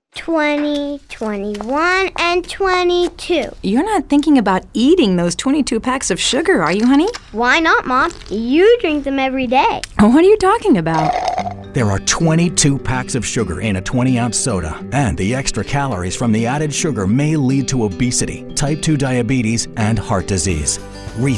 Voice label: English Radio